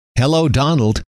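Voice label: "hello", male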